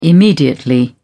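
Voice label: "immediately", British English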